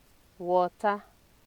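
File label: "water", Nigerian Pidgin, female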